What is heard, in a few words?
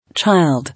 "Child", English